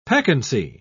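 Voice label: "peccancy", British English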